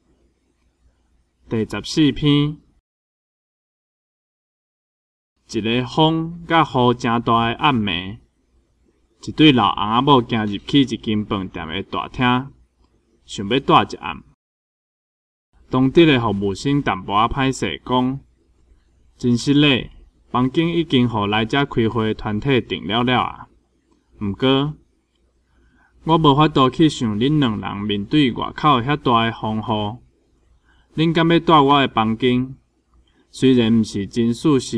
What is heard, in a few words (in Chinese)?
文章朗讀, 閩南語; 閩南語語言能力認證; 金安咱來考C